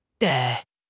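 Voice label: "dai4", Chinese; HSK4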